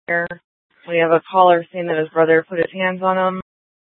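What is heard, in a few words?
Police Department North Dispatch, scanner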